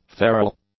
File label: "feral", english; adjectives